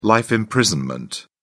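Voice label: "life imprisonment", British English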